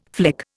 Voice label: "flick", Indian